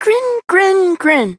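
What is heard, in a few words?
Child Rhymes Happy Child Male; Duviri; Childrens Rhymes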